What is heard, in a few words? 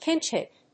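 "pinch-hit", English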